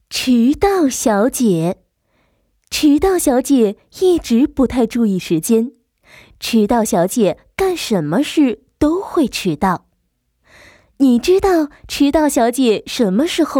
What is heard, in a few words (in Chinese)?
亲切讲述儿童绘本